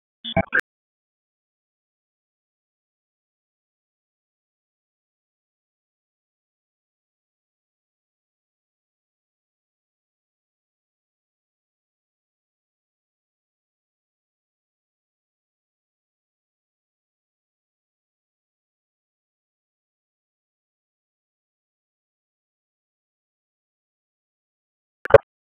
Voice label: Police Southwest District Tac 1; Raleigh; wake; p25